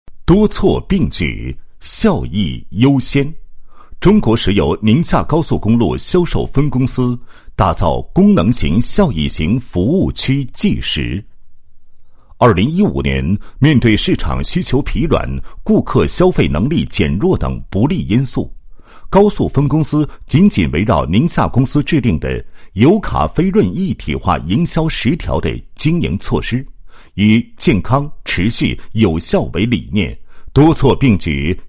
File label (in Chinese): 男 工作纪实, 配音; 中国石油高速公路销售分公司工作纪实